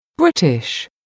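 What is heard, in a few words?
"british", English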